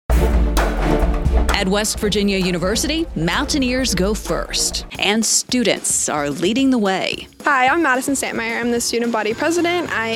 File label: radio spot; sga aug 2023